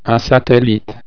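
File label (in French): "satellite", French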